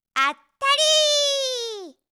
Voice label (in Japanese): あったり～, 遊ぶ女の子